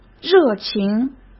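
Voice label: "热情", Mandarin Chinese; chinese flashcards; hsk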